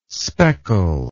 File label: "speckle", english